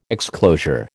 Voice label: "exclosure", American

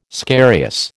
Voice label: "scarious", American

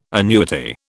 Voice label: "annuity", British

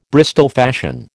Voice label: "Bristol fashion", American

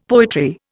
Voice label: "poetry", Indian; Dictionary

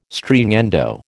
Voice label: "Stringendo", American

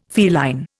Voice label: "feline", Indian

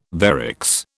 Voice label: "varix", British

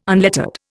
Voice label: "unlettered", Indian

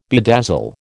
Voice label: "bedazzle", American

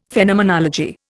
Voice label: "Phenomenology", Indian; Dictionary

